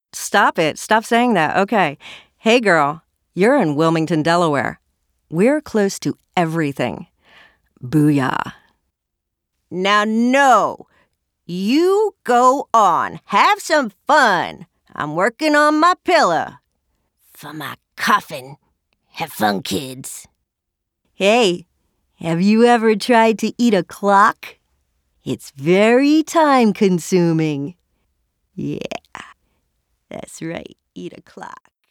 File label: English; SNAP